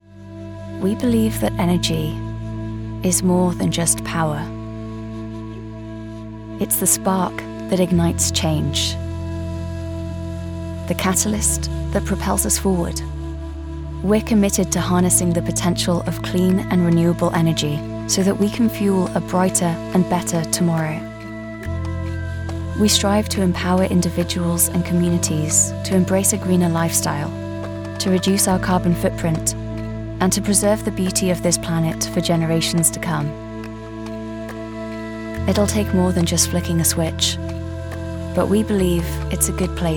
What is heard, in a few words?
Corporate